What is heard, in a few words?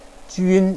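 "jun1", Mandarin Chinese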